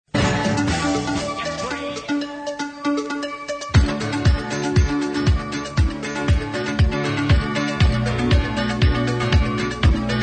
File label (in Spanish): Promo programa, Radio; Ondas de Teror